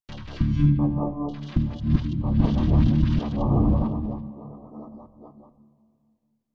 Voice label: strange talk; cstrike